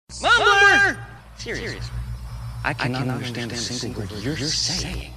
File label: mumbler